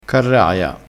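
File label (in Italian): "carraia", dialettale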